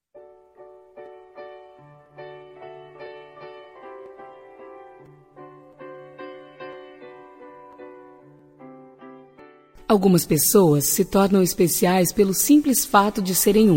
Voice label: Amizade Mensagem pra Amizade F

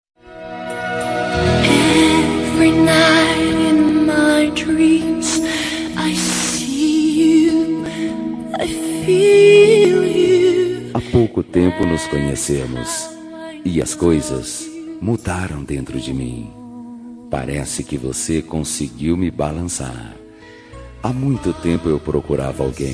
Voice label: Romântica Suave

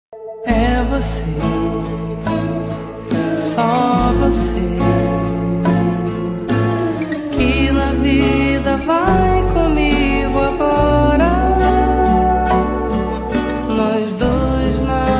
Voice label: Namorados pra Esposo F

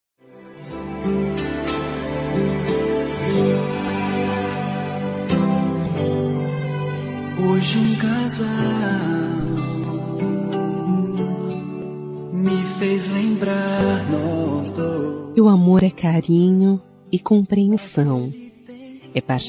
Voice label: Romântica; Como e o Amor

